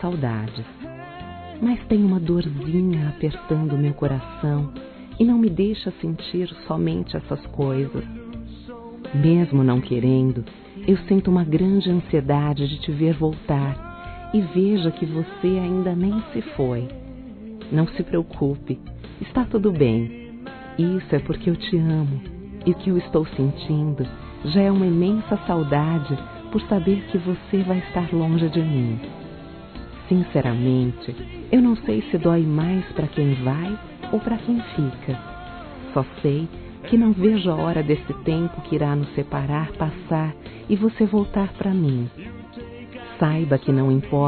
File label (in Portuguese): Romantica Boa Viagem Feminino; Telemensagens; Românticas